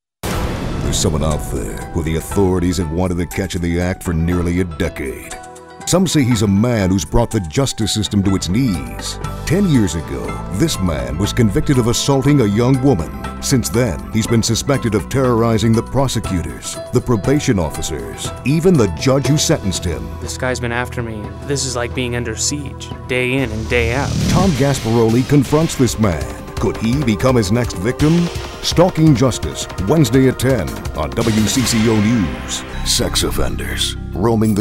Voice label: promo, male voice talent

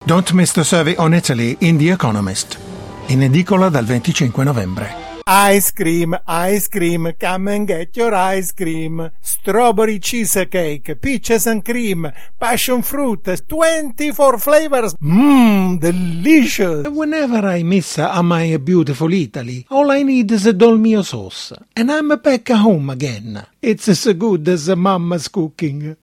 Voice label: Italian Accent; foreign languages

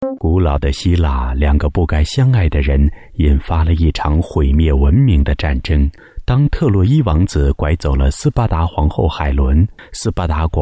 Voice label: Cantonese

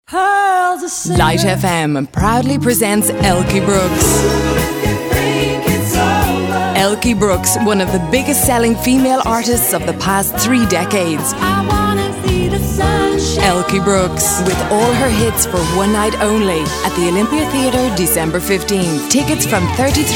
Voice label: Irish accent

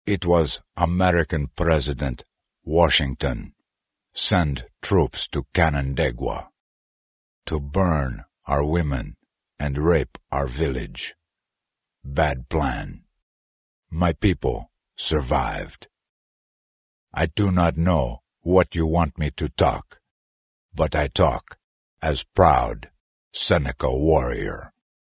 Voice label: American Indian; American Indian / Accent Voice Overs; foreign languages

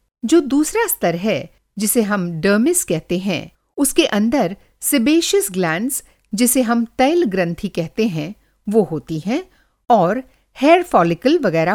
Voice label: Hindi, Indian-Hindi voice overs, Female

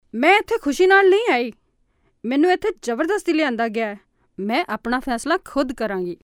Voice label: Punjabi

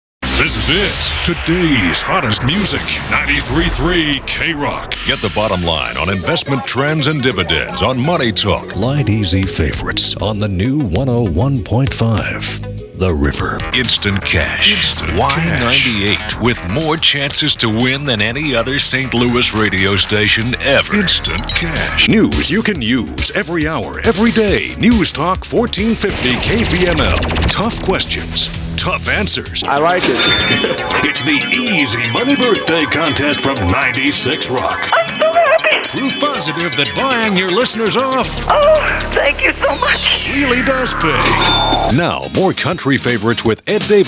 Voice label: imaging, male voice talent

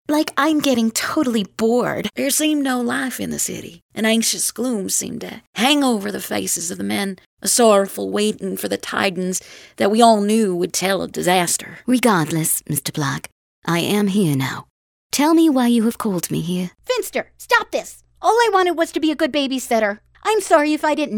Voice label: female voice talent

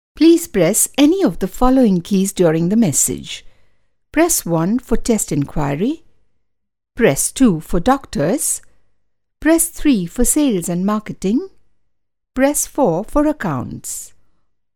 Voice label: Indian Accent, Female voice overs; foreign accent voiceovers

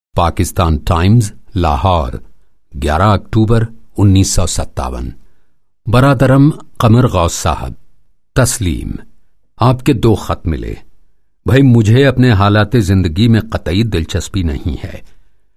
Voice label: Urdu, Pakistani-Urdu voice overs, Male

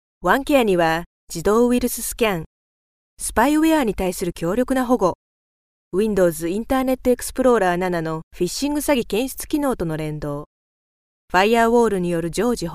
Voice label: Japanese Voice, Japanese voice overs, Female

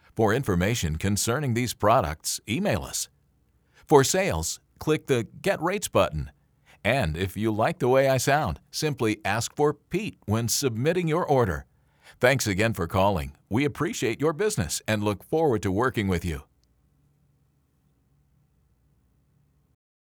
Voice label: Voice Sample, onhold

